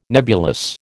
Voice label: "nebulous", American

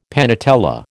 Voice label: "panatella", American

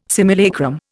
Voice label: "simulacrum", Indian

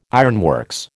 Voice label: "ironworks", American

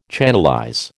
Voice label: "channelize", American

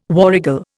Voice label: "warrigal", Indian

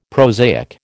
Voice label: "prosaic", American

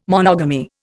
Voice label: "monogamy", Indian

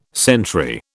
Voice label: "sentry", British

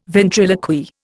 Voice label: "ventriloquy", Indian

